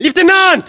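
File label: rank lieutenant, battlechatter, Russian; localized english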